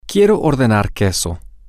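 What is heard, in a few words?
Spanish, female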